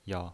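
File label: "yao" Mandarin Chinese pinyin voice, neutral tone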